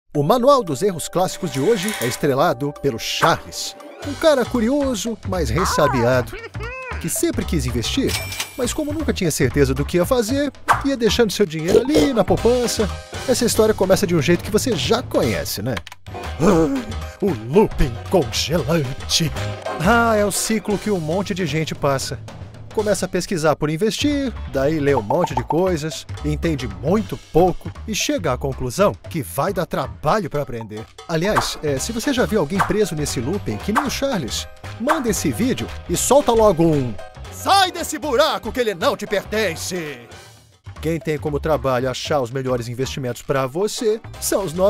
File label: portuguese brazilian commercial